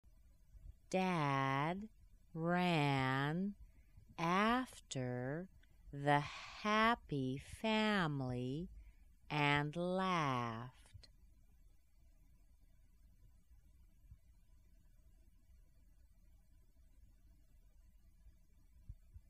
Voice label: AE Sentences Slow, American accent